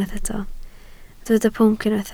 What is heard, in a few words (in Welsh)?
"pwnc", Welsh